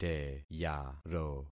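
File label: "teyaro", concatenated syllables; Affixing experiment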